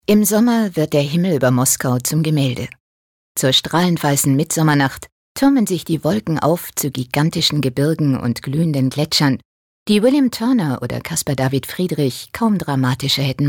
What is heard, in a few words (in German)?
Kommentar Neutral